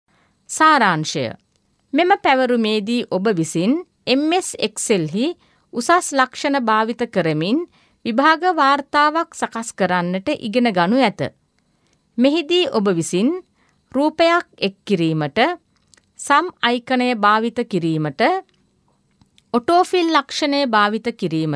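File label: Sinhala female voice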